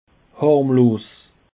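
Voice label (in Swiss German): "harmlos", Bas Rhin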